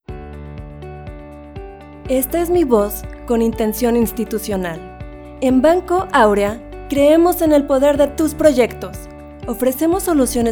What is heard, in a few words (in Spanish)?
institucional Musicalizado